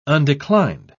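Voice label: "undeclined", British English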